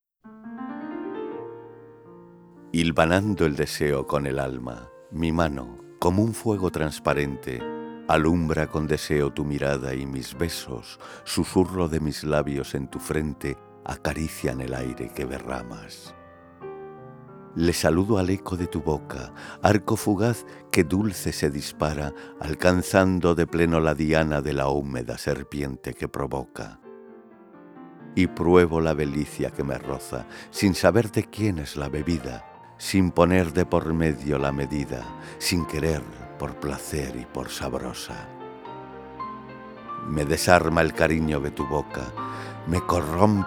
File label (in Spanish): LOCUTOR DEMO POEMA